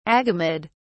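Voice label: "agamid", British English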